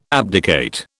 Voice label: "abdicate", British

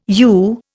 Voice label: "yew", Indian

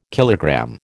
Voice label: "kilogram", American

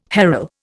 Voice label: "harl", Indian

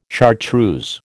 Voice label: "chartreuse", American

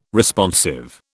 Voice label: "Responsive", British

